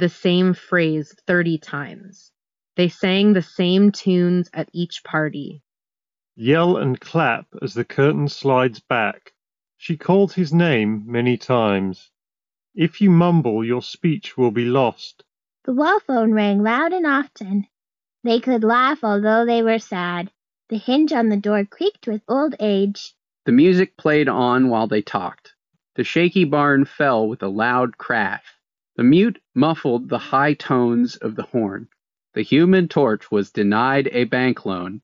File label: Ideal microphone sample; Anker Soundcore AeroFit